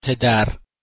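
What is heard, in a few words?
"pedar", Persian, male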